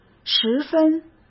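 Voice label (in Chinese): "十分", Mandarin Chinese; hsk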